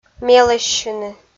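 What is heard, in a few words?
"мелӧссьыны", Komi, female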